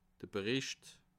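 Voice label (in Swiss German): "bericht", Bas Rhin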